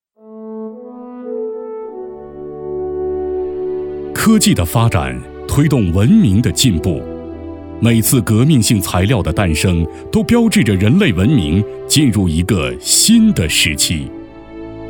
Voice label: 男 专题 大气稳重 科技专题

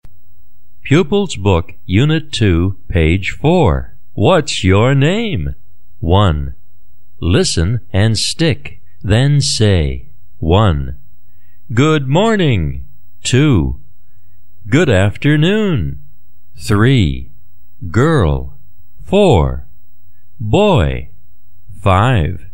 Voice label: 外籍男 课件配音

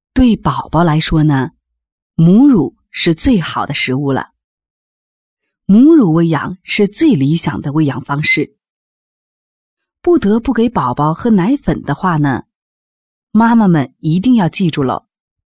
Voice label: 女 专题讲座 宝宝奶粉 电台讲座类 视频讲座类; 美素佳儿

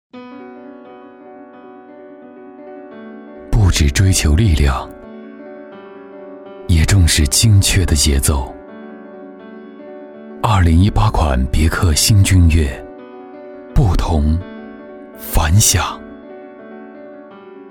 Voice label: 男, 广告, 别克新君越

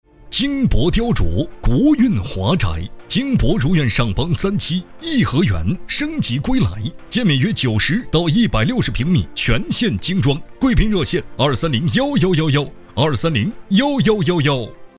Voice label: 房产广告

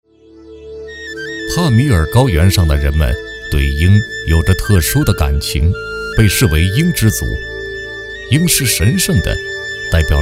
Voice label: 男100-讲述-塔吉克鹰舞, 国语男声配音

